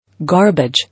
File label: "Garbage", English